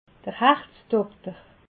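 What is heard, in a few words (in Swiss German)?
"herzdokter", Alsatian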